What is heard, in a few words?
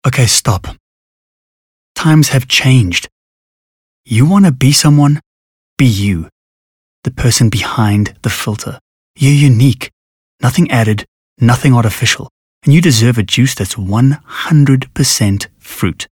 English Corporate